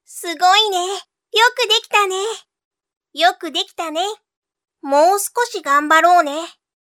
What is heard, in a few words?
boy, voice